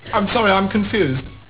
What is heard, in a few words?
confused